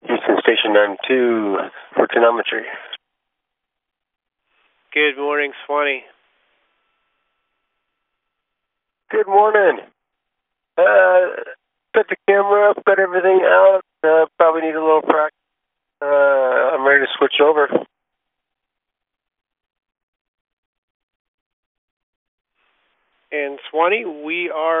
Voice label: Space to Ground; Expedition 39